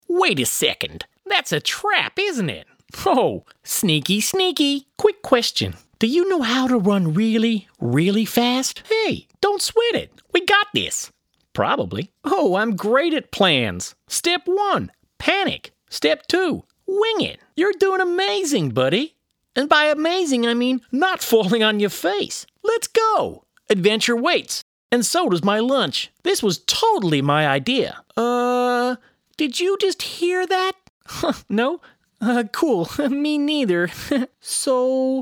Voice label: Whiny Sidekick, Character / Cartoon, Cartoon Sidekick Animation, male voice